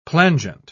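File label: "plangent", British English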